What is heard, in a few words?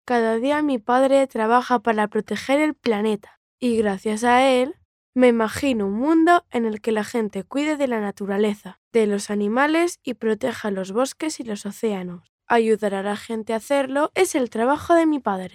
locutor nino spanish child boy voiceover